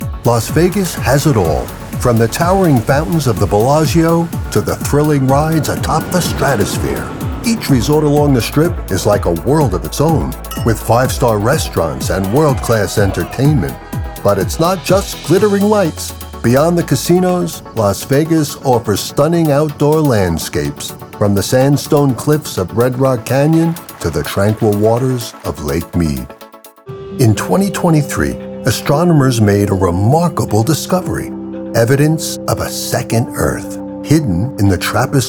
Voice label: Narration; I'm a warm, friendly voice with a deep, resonant tone and a calm, confident delivery.